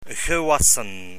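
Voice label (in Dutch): "gewassen", Dutch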